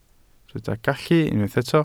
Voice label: "gallu", Welsh

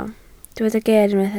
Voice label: "ger", Welsh

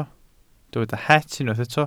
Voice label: "het", Welsh

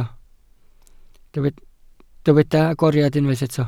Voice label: "agoriad", Welsh

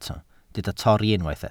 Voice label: "torri", Welsh

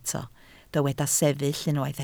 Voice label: "sefyll", Welsh

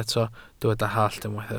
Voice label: "hallt", Welsh

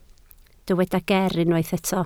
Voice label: "ger", Welsh

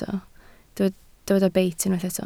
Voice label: "beit", Welsh

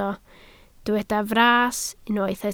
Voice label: "fras", Welsh

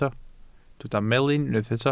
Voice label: "melyn", Welsh

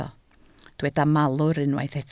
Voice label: "malwr", Welsh

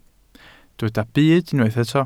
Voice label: "byd", Welsh

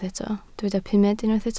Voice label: "pumed", Welsh

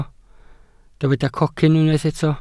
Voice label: "cocyn", welsh

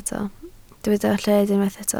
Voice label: "lled", Welsh

